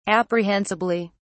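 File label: "apprehensibly", British English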